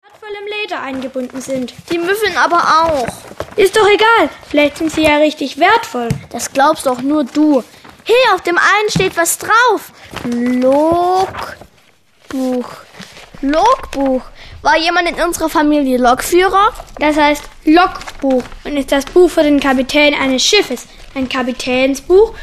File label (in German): sprechszene